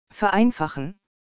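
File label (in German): "vereinfachen", German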